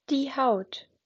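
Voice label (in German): "Haut", German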